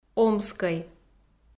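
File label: "омскӧй" Komi-Zyrian, female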